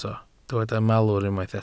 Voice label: "malwr", Welsh